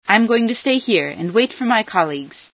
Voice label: stop, female sci

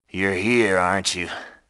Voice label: "oneshot", grunt; nimble grunt

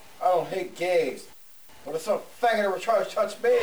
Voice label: Chat

